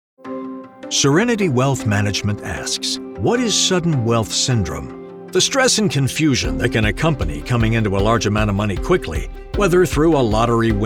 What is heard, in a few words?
Corporate Explainer